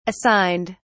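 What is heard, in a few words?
"assigned", British English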